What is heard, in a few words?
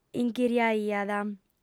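"inghiriaiat", Sardinian, female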